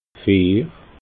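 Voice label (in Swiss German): "vier", Alsatian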